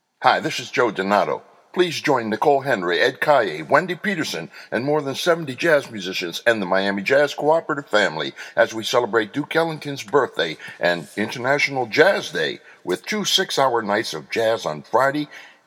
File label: Radio Ad